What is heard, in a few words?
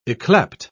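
"yclept", British English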